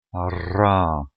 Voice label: trill